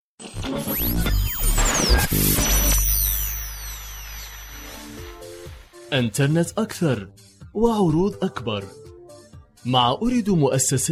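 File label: arabic male commercial; voice demos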